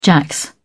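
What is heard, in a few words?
"jacks", British English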